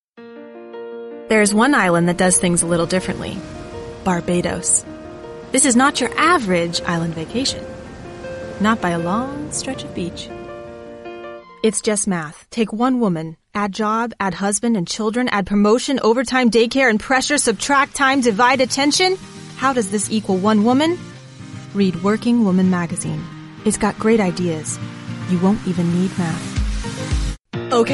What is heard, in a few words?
commercial narration